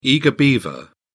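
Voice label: "eager beaver", British English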